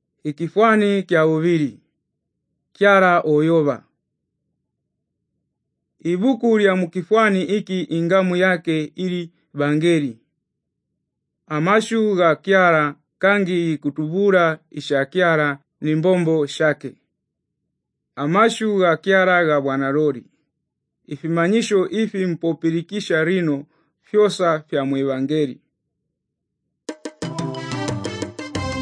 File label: good news ngonde, drama; kinyakyusa; bible society of tanzania; inongwa inunu